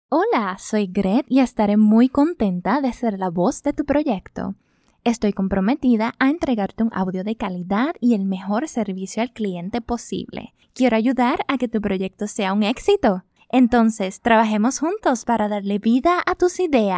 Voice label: Spanish (LA), Female